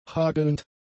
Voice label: "hagelnd", German; adjectives

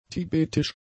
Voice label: "tibetisch", German; adjectives

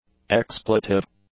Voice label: "expletive", english; adjectives

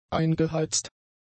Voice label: "eingeheizt", German; adjectives

